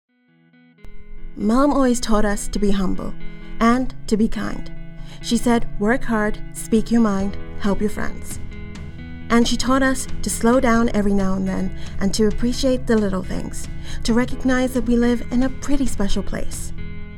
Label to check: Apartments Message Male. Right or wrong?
Wrong